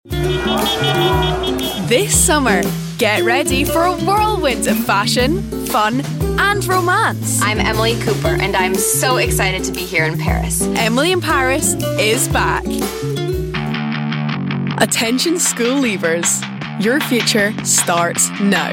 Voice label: daughter 17 in 2024